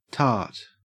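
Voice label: "tart", Australian English; pronunciation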